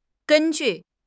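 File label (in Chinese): "gen1 ju4", Chinese; HSK; HSK3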